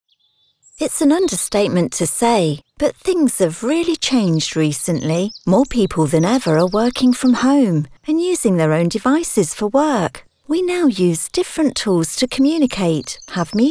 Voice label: Corporate Cautionary